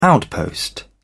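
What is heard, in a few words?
"outpost", British English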